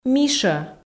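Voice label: "мишӧ", Komi, female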